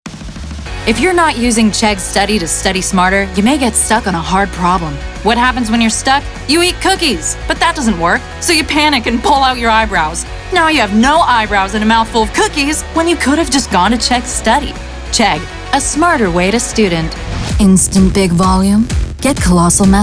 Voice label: commercial, Voice Talent